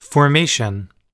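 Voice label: "formation", English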